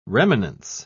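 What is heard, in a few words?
"remanence", British English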